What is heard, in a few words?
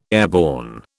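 "airborne", British English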